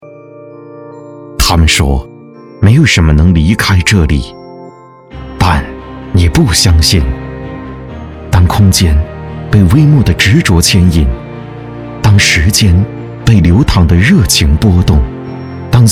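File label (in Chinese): 男【广告】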